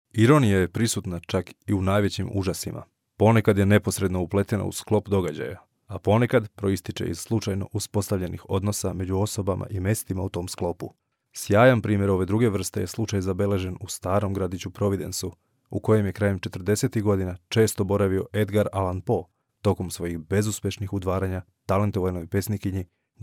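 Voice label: Serbian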